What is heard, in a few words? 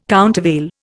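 "countervail", Indian English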